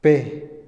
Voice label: telar, Otomí, hombre; Ropa; INALI

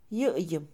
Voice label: el mismo, Mixe, Mujer; INALI

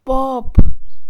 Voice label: blanco, Mixe, Mujer; colores; INALI

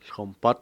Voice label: saltamontes, Cucapá; Animales

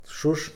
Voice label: "flauta", Mixe, hombre; Habla

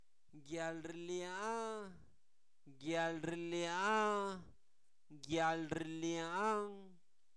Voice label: hambruna, Zapoteco, santo domingo albarradas; INALI; sustantivos

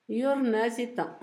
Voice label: "ahora sí", Zapoteco Asunción Ocotlan; Adverbios; INALI; Haspelmath

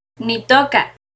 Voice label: Yo siembro maíz-Nitoca, Náhuatl; NAHUATLAHTOLLI

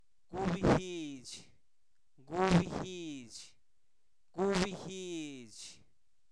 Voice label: Zapoteco, santo domingo albarradas; sol; fenomenos naturales; INALI

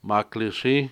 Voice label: "arcoíris", Cucapá

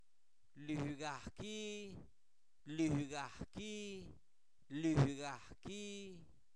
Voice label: Zapoteco, santo domingo albarradas; INALI; pronombre libres; tú mismo

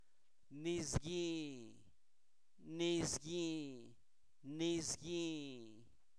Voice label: caldo, Zapoteco, santo domingo albarradas; alimentos; INALI

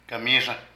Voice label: Camisa, Zapoteco de Petapa; Ropa; Vocabulary

